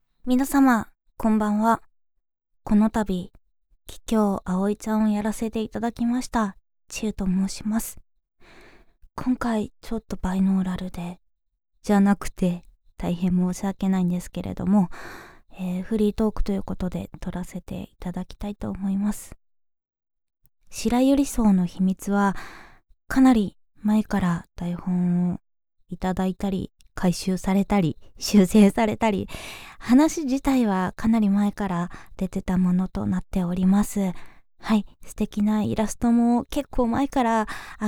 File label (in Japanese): フリートーク